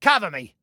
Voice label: Defend Me, Male